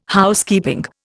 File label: "Housekeeping", Indian